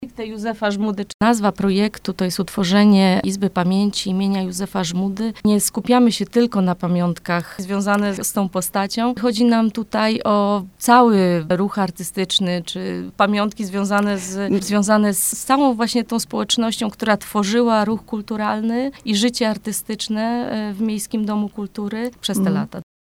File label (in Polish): spot; MDK izba pamieci spot; Stalowa Wola: Pomóż tworzyć izbę pamięci MDK; Miejski Dom Kultury w Stalowej Woli chce stworzyć Izbę Pamięci im. Józefa Żmudy; Radio Leliwa